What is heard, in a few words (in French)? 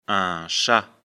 "chat", lecture fr; afrikalan